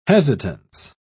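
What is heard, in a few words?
"hesitance", British English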